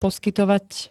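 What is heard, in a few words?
"poskytovať", Slovak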